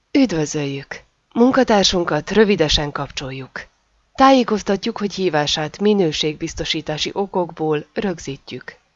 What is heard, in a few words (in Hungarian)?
IVR noi hangminta magazo; ArenimTel